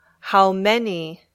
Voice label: "How Many", English